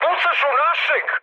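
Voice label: Serbian player friendly fire mood high; War Thunder; speech srb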